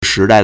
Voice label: "shi2dai4", Chinese